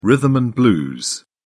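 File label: "rhythm and blues", British English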